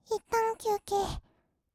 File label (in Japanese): 「いったん休憩」, kawaii voice; 1200 kawaii voice!!; 色々なワンショット